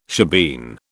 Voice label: "shebeen", British

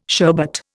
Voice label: "sherbet", Indian

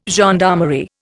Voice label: "gendarmerie", Indian

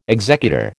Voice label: "executor", American

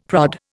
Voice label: "prod", Indian; Dictionary

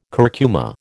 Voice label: "curcuma", American

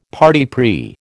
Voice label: "parti pris", American

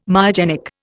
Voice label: "myogenic", Indian

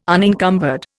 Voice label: "unencumbered", Indian

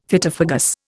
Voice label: "Phytophagous", Indian; Dictionary

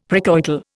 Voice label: "precoital", Indian; Dictionary

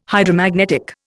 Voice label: "Hydromagnetic", Indian

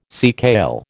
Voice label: "seakale", American

